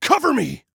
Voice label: Defend Me, Male

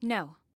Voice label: "No", Female; Female 02

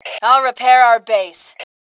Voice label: Self Repair Base, Female; T2 Female 03

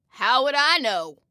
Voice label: Respond Don't Know, Female

